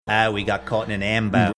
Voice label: saying oh we got caught in an ambo australian accent